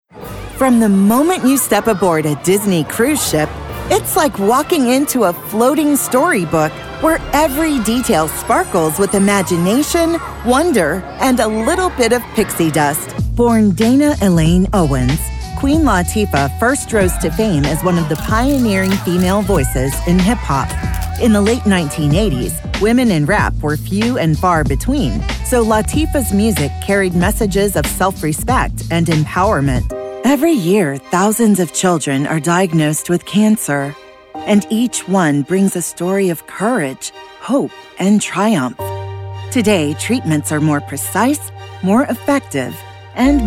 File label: Documentary Narration